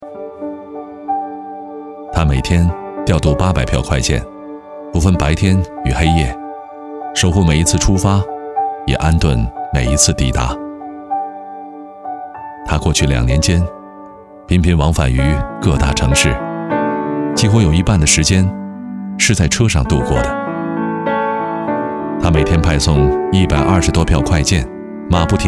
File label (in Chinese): 男 旁白 人物短片 磁性温暖